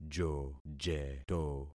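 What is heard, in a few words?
"jojeto", concatenated syllables; Affixing experiment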